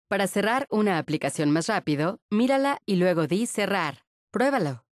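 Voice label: close, Spanish, Mexico; Windows HoloShell; teachingmoments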